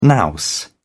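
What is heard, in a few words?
"nous", British English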